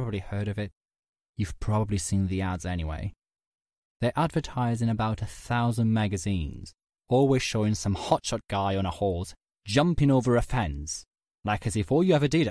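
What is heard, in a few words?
IT AccEN, Italian accented English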